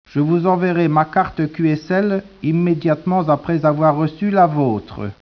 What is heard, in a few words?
speech, French